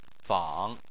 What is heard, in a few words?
"fang3", Chinese